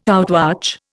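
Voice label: "outwatch", Indian English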